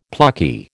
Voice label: "plucky", American